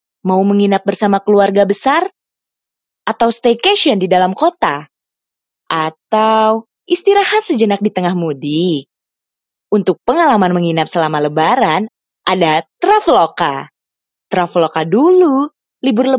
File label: CASUAL INDO